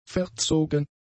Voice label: "verzogen", German; adjectives